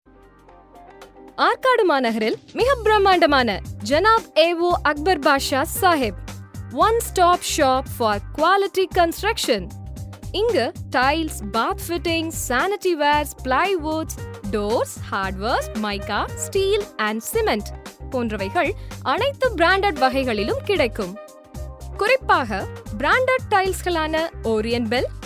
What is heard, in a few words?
Advert Tamil